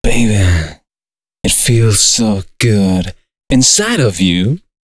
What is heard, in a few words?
male 130, Dance Ultra Voice, short hooks; Mutekki Media; Dance Ultra Voice VOL-1